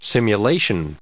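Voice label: "simulation", US English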